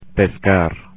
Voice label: "pescar", Spanish; infinitive; verbs